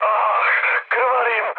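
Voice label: Serbian damaged pilot mood med, speech; War Thunder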